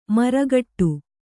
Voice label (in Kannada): "ಮರಗೋಡು", Kannada